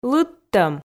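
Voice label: "лыдтӧм", Komi, female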